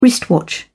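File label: "wristwatch", English, British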